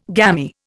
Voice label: "gammy", Indian

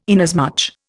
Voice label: "inasmuch", Indian

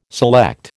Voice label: "select", American

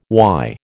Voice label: "why", American

